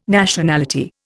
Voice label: "Nationality", Indian